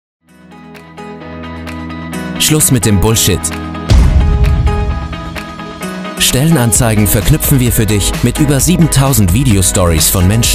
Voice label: Werbung smart; Whatchado

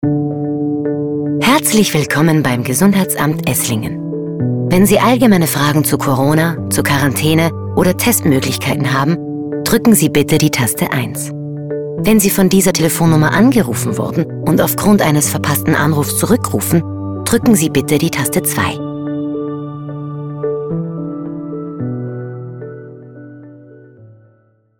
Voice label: Telefonschleife Gesundheit freundlich informativ

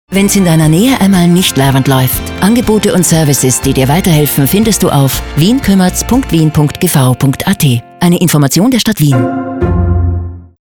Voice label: Werbung Spot; Wien

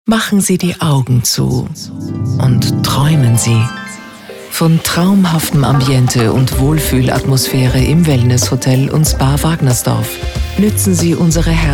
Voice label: SPOT Wellness

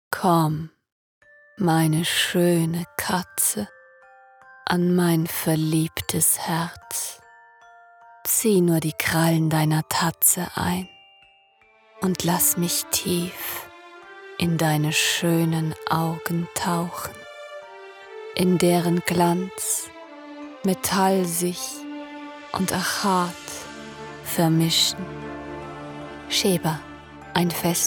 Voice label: Werbung; Sheba

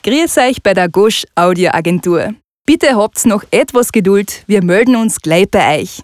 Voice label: Kärntnerisch

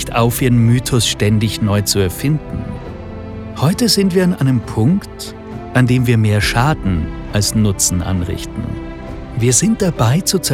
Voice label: Doku Alpen

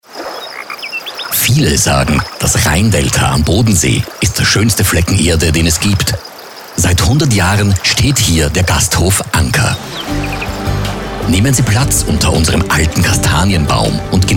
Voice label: werbung SPOT; Gasthof Anker

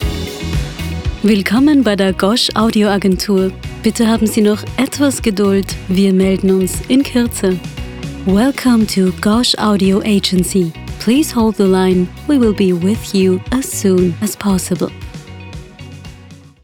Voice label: Telefonansage

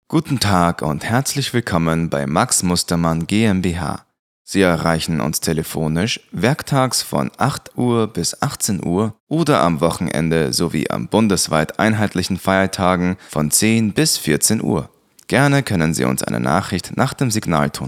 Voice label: Telefonansage; GER

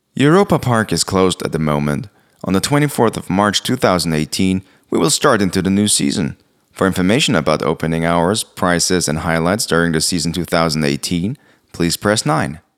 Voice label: Telefonansage; ENG

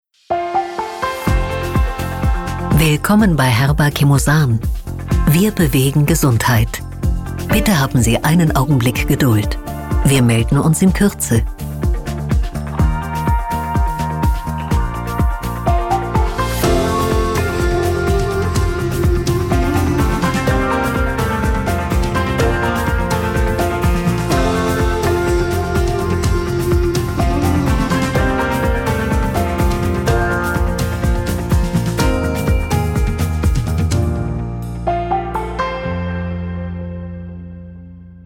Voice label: Telefonansagen Begrüssungstext; HERBA

